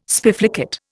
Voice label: "spiflicate", Indian